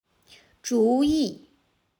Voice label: "主意", Chinese; cyberchinese

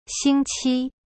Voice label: "星期" xing qi, chinese

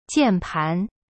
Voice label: "键盘", chinese

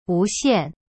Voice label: "无线", Chinese; wu xian

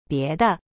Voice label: "别的", Chinese; bie de; cyberchinese

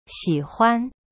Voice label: "喜欢 xi huan", Chinese; cyberchinese

